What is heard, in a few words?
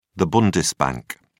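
"bundesbank", British English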